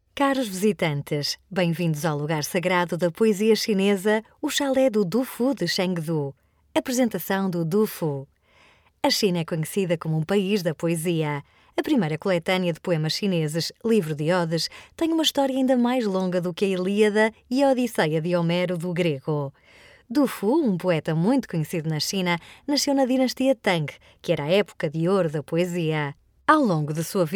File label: female, portuguese